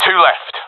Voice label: enemies left two, professional epic; vo; Counter Strike Model Pack; CS:GO

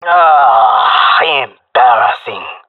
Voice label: round lost, jungle male; player vo; Counter Strike Model Pack; CS:GO

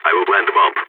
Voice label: going to plant bomb, balkan

